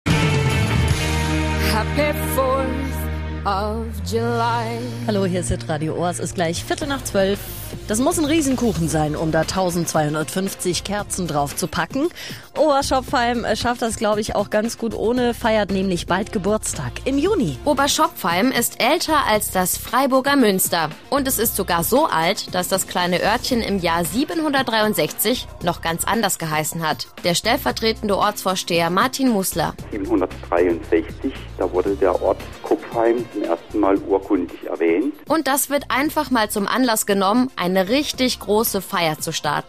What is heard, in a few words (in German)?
radiospot; hitradio ohr